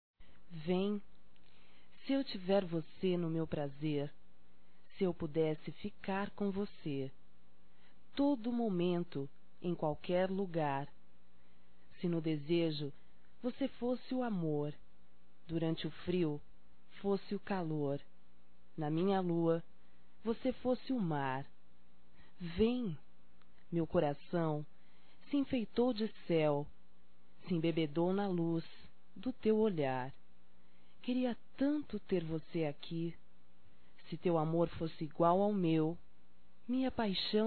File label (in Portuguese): Vem Feminino; Românticas